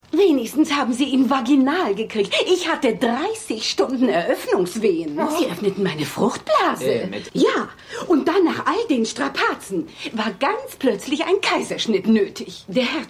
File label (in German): Stadtbewohnerin; AusgerechnetAlaska